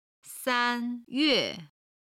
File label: "san1yue4", Chinese